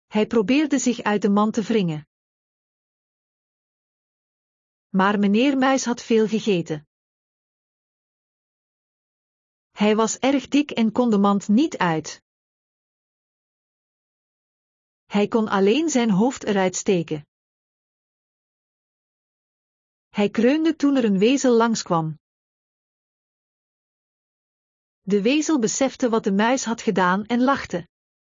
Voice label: Dutch Short Stories, De muis en de wezel; Aesops Fables